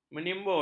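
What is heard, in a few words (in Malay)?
"menimbun", Malay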